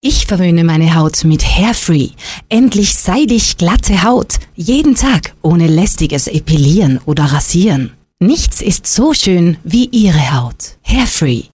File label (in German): IMAGE SPOT kurz; HAIRFREE